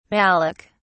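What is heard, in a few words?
"malik", English, uk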